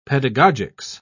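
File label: "pedagogics", English, British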